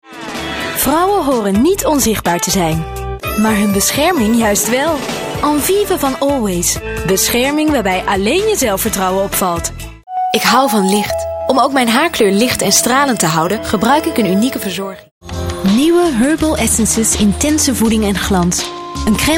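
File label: Dutch female commercial; voice demos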